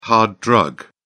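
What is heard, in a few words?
"hard drug", British English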